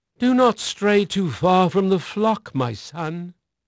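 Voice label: English male; Pirates Of The Caribbean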